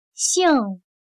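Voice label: "兴" Chinese, xìng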